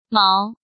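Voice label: "Máo", Chinese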